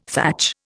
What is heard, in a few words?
"thatch", Indian